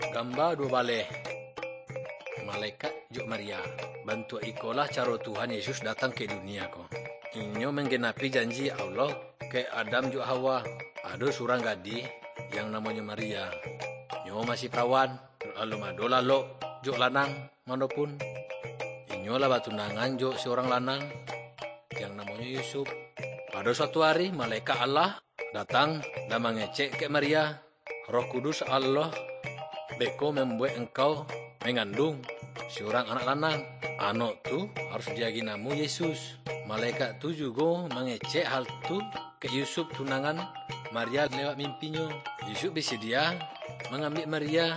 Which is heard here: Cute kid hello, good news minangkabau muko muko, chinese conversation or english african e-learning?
good news minangkabau muko muko